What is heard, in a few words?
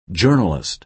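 "journalist", American English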